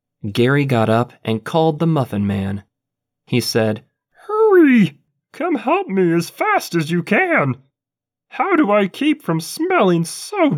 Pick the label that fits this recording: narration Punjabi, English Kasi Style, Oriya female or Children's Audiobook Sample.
Children's Audiobook Sample